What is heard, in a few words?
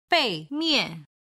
"beimian", Chinese